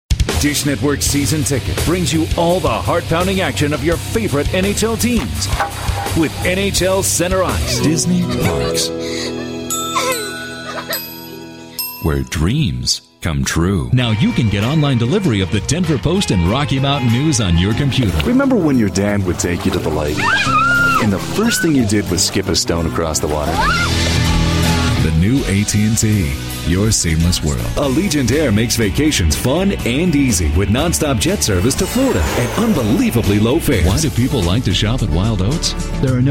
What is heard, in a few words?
attitude, male voices for radio commercials